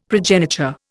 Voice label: "progeniture", Indian; Dictionary